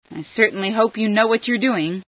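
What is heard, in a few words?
hope you know, female scientist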